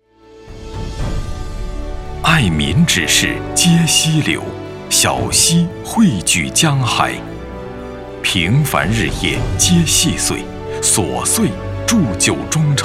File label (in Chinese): 颁奖 浑厚 温润 亲切 和缓 肯定; 个人版警务标兵; 公安警察标兵